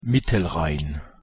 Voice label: pronunciation de mittelrhein